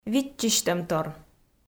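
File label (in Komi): "виччысьтӧмтор", Komi, female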